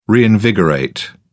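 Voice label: "reinvigorate", British English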